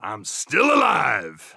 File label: last man standing, radio